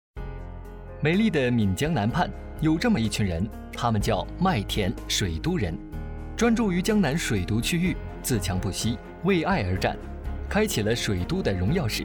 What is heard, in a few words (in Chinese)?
男,纪录片